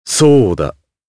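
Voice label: Vox Japanese; Kain; kingsraid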